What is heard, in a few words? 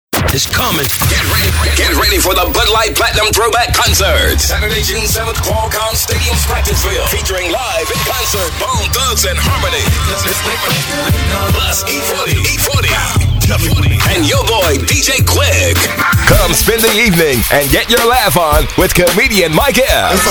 urban radio commercials